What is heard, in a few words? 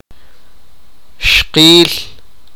wife, sipakapense